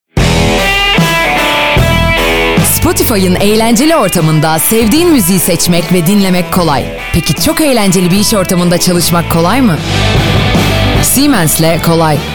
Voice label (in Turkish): reklam; siemens